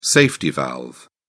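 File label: "safety valve", British English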